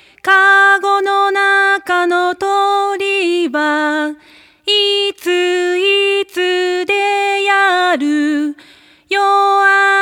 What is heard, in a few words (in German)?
Japanisch, kinderverse